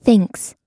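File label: "thinks", American English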